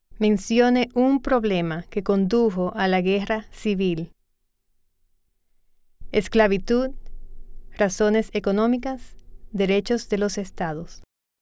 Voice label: Spanish